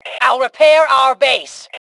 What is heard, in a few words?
Self Repair Base, Female; T2 Female 04